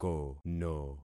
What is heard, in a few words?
"kono", concat syllables; Affixing experiment